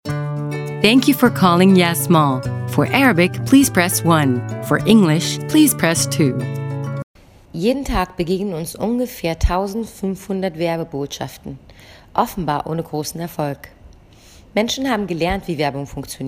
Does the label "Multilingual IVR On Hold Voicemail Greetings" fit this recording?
Yes